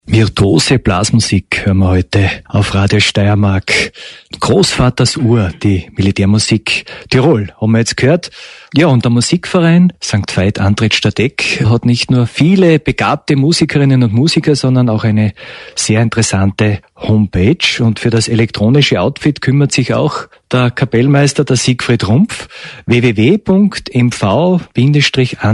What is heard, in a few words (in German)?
Schlussansage